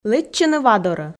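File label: "лэччыны вадорӧ", Komi, female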